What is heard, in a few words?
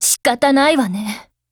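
low mood, Japanese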